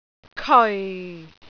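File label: "keoi4", Cantonese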